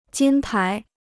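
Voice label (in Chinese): "金牌" Chinese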